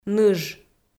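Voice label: "ныж", Komi, female; kpv; audio dict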